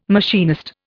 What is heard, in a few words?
"machinist", Indian